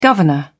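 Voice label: "governor", English, British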